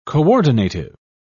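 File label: "coordinative", British English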